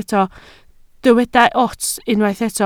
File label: "ots", Welsh